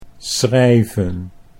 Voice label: "schrijven" Dutch

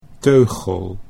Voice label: "teugel", Dutch

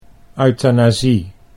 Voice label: "euthanasie", Dutch

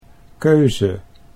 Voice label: "keuze", Dutch; 'choice'

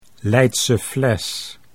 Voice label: Leidse Fles, Dutch

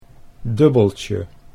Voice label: "dubbeltje", Dutch

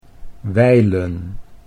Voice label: "wijlen", Dutch

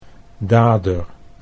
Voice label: "dader", Dutch

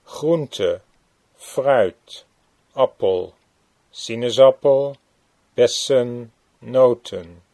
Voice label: "groente fruit", Dutch

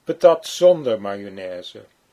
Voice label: "patat zonder mayo", Dutch

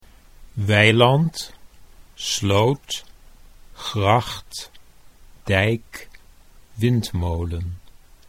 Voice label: "weiland sloot", Dutch

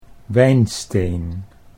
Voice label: "wijnsteen", Dutch

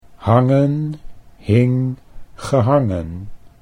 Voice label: hangen hing gehangen, Dutch

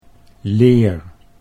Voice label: "leer", Dutch

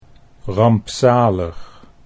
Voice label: "rampzalig", Dutch

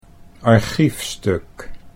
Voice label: "archiefstuk", Dutch

